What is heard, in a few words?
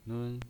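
"nun" first tone, pinyin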